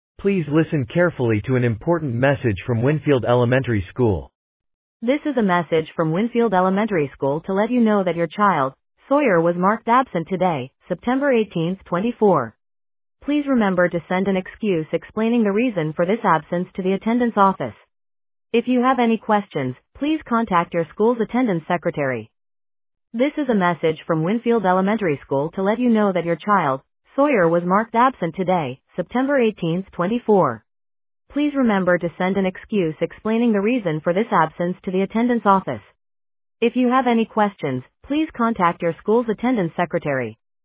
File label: Voicemail